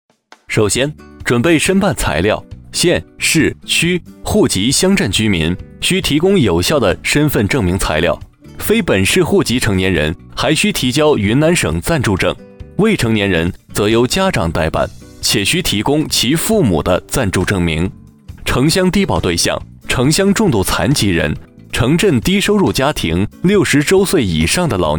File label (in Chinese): 男 政府材料介绍